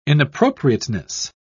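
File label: "inappropriateness", British English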